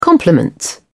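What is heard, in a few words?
"compliments", British English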